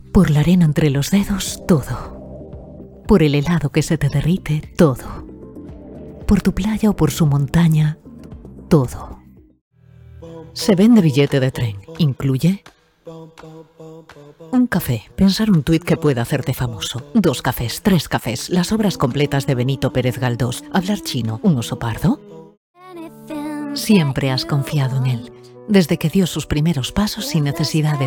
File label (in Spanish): publicidad, mujeres 35 a 50